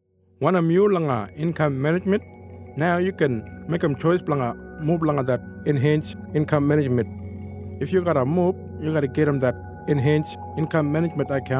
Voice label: nthn kriol; enhanced income management